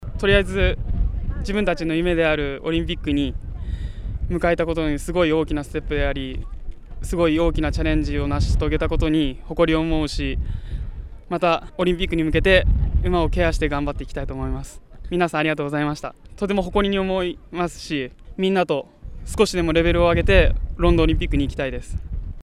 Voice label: Japanese

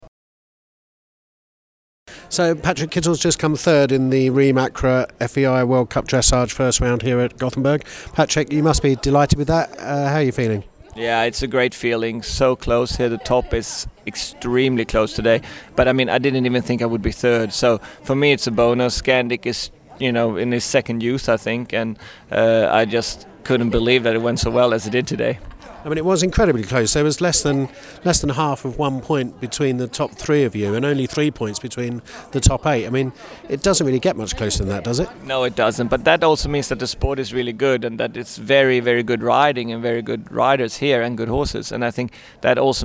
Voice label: english; eem Acra FEI World Cup Dressage Patrik Kittel third

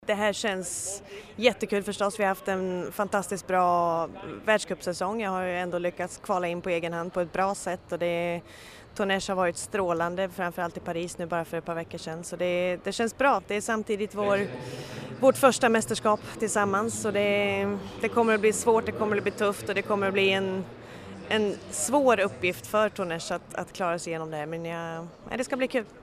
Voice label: swe

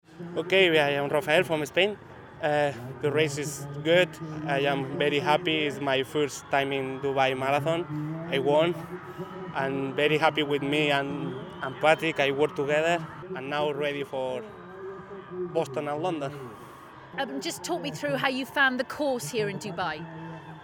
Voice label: English; Dubai Marathon Rafa Wheelchair Winner

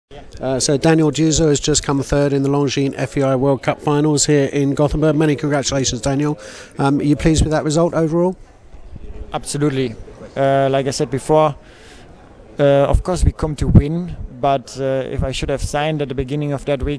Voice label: English; Longines FEI World Cup Jumping Third Daniel Deusser

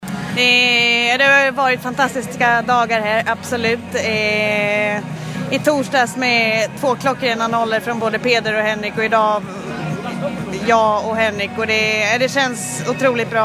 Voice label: swe; FEI Malin end

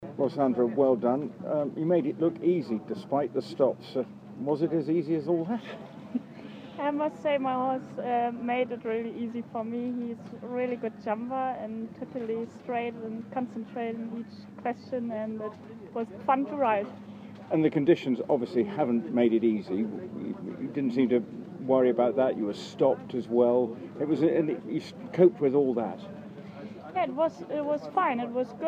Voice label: eng; Longines Auffarth Sat

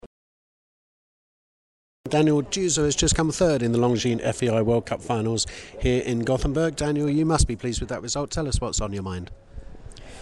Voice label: German; Longines FEI World Cup Jumping Third Daniel Deusser

